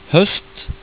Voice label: "host", Norwegian